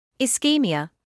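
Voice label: "ischemia", British English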